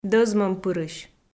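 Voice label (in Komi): "дӧзмӧмпырысь", Komi, female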